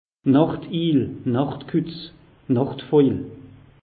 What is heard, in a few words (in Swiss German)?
"nachtiil", alsace; meteo